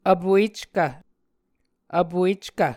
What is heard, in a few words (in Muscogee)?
"vpueckv", muscogee